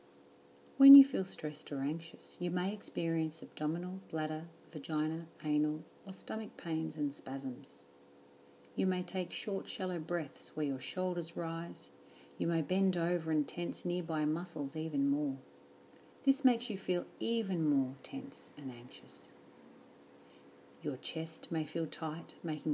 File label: Relaxation Training Diaphragmatic breathing